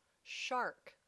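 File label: "shark", English word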